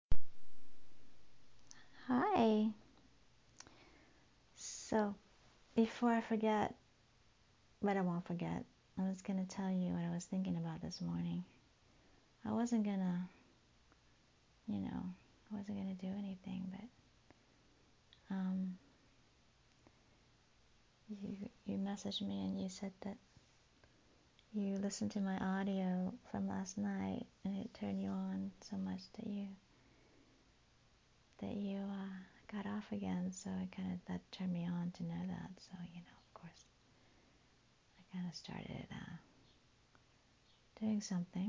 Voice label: Voicemail

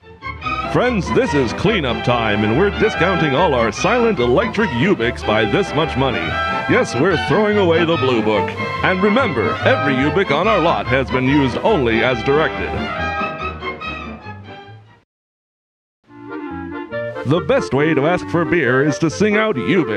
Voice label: adverts voiceover; ubik

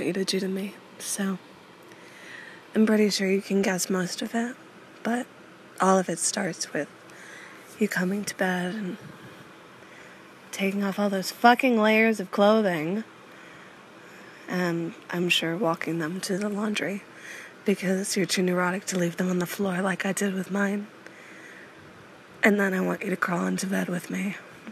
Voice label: Broken Voice

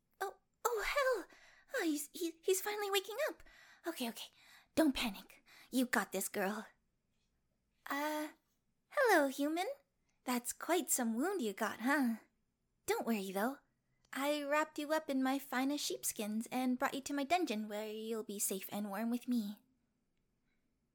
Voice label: F4M Cute Awkward Inexperienced Tsundere; Succubus Saves A Novice Hu

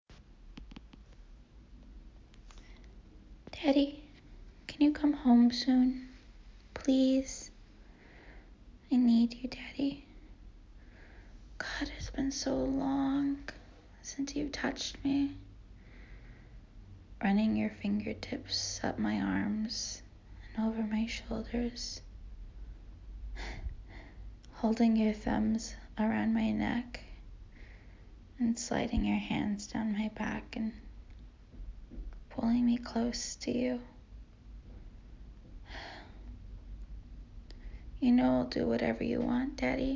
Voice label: Kitten Begging

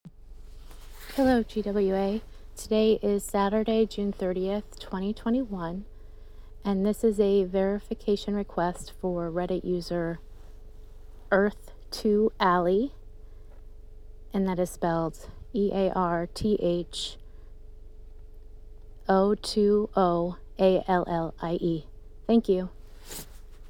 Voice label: F Verification Request

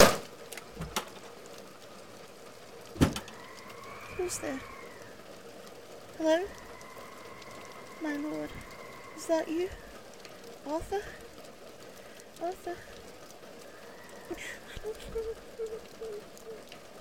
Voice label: F4M Romantic; Guinevere and Lancelot; [Historical]; [Historical Romance]; [Toxic Love]; [Dramatic]

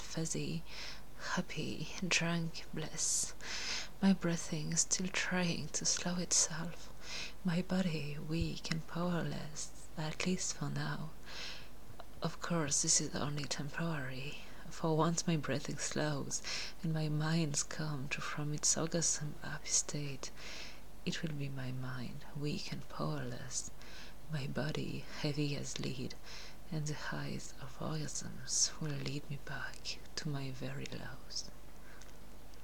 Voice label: Waves raspy low; from uGentlestWhispers' amazing script

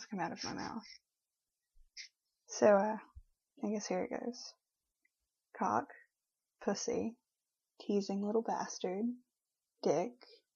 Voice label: Accent Challenge

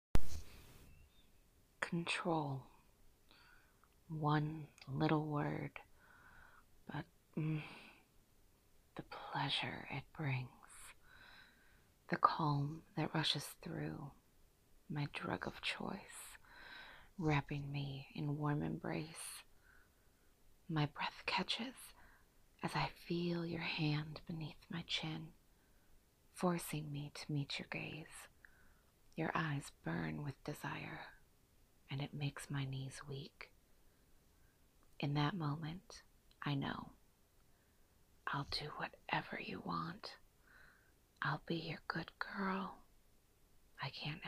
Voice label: F4M erotic poetry; Control; submission

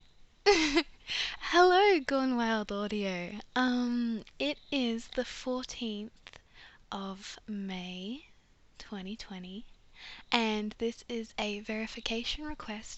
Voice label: Female Verification

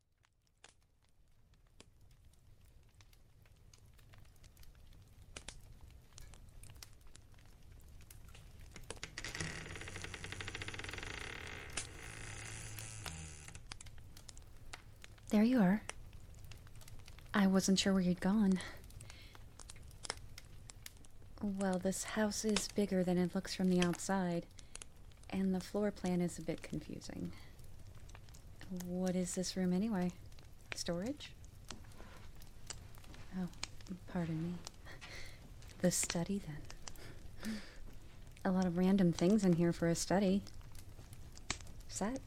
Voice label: I'll Keep You Warm, Fireplace Ambience; [F4M]; in depth; [SFX]; Erotic audio

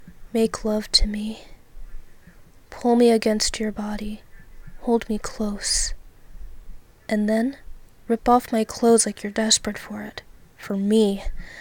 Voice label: Erotic Poetry, Soft Voice; Feels; F4A; Make Love to Me

